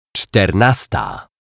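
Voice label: "czternasta", Polish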